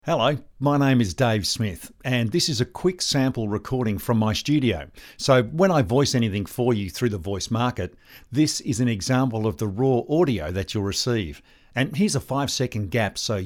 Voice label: Studio Sound Check